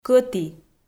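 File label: "кӧті", Komi, female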